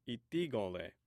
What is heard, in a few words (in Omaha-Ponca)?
"itigon", Omaha language